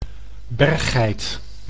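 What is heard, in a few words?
"berggeit", Dutch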